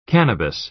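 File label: "cannabis", American English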